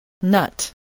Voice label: "nut", voice, spelling